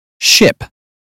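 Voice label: "ship", British English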